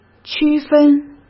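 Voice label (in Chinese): "区分", Mandarin Chinese; hsk; chinese-flashcards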